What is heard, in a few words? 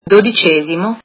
twelfth, italian